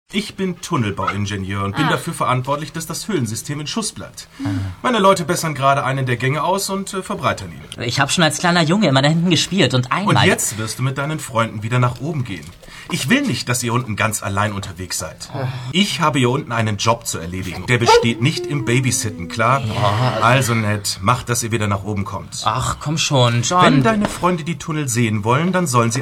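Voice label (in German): hörspiel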